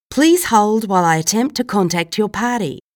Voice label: australian english Please Hold